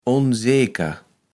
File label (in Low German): "onseeker", kaevels platt